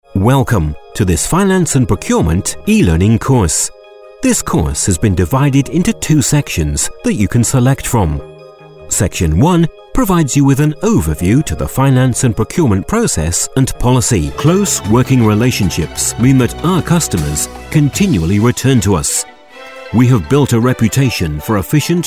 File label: corporate